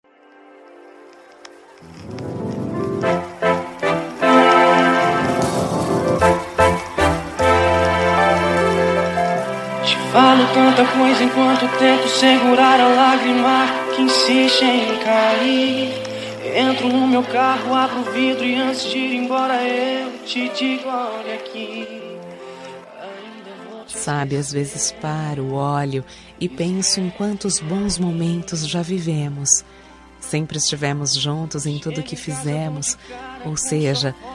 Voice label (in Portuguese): reconciliacao fem